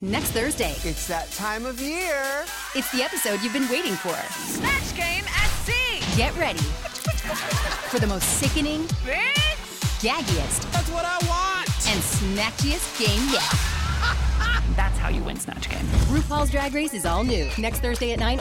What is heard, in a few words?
promo women